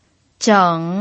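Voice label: "zheng" Mandarin, third tone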